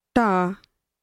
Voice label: destruction, punjabi; English: destruction